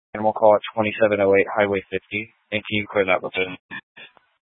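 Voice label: Incident Tactical 3, Scanner audio recording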